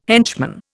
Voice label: "henchman", Indian